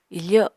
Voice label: "ifo", Apatani

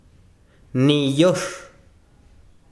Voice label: besiro cola, chiquitano

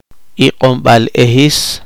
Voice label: poqomchi

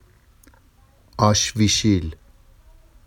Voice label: "ɑʃviʃil", Vafsi; آش‌ویشیل; n a kind of food

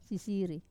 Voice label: "sasiri", to see, wamesa

